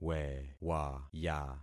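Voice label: "wewaya", concatenated syllables; Affixing experiment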